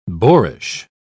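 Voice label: "boarish", British English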